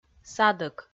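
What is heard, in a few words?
"садӧк", Komi, female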